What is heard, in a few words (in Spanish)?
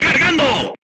voice message reloading, Spanish